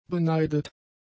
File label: "beneidet", German; adjectives